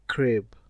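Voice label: "crib", Nigerian Pidgin, male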